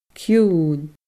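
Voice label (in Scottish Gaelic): "ciuin", Scottish Gaelic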